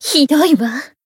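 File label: Voice damaged, Japanese; toova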